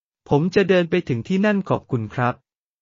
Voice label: Thai, basic thai phrases

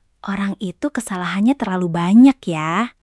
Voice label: indonesia, indonesian negative phrases

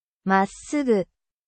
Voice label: japan

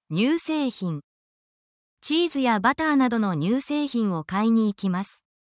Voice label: essential japanese for ingredient

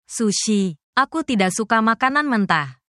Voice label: indonesia, indonesian negative phrases

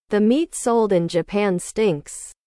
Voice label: english

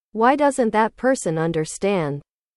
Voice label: english

